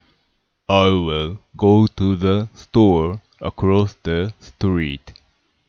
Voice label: I will go to the store Japanese accent